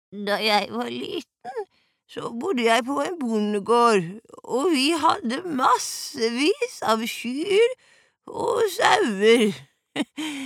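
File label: Norwegian dub old woman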